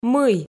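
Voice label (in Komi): "мый", Komi, female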